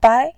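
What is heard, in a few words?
"bai", Mandarin Chinese, tone 2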